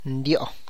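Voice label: Tlacochahuaya Zapotec; fat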